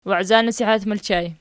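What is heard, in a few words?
"waizanasihat" ergative plural, Archi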